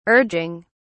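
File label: "urging", British English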